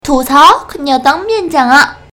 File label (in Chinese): 吐槽